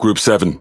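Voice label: Pirate Speech Pilots Fighter, English pirate; World Of Warships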